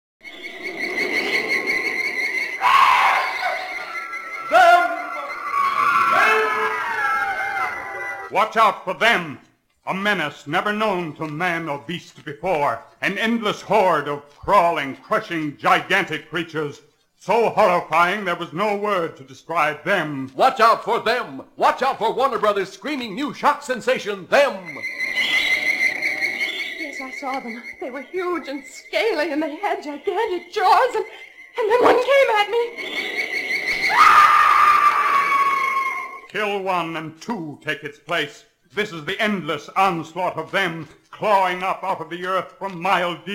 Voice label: Radio Spot; Granny Creech's radio spot crypt